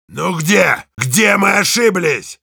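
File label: Heavy jeers Russian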